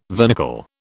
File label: "vernicle", British